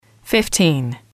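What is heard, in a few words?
"fifteen", English, female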